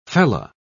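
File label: "fellah", British English, dictionary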